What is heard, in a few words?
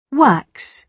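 "wax", British English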